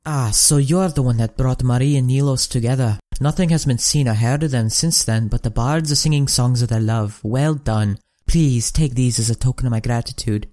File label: breton reading, breton voice auditions